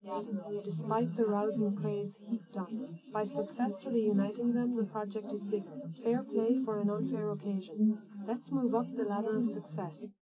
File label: female srec 130ms 20cm sim